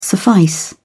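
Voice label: "suffice", British English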